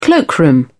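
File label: "cloakroom", British English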